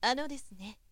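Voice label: "anodesune", Japanese, woman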